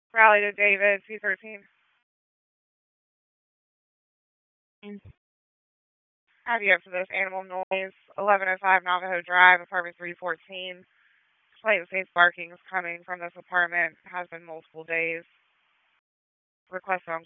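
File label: Police Northeast District Dispatch, p25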